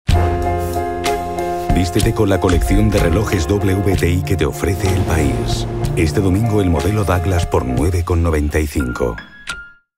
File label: Catalan automotive